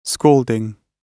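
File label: "scalding", British English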